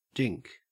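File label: "dink", Australian English; pronunciations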